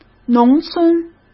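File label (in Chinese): "农村", Mandarin Chinese; hsk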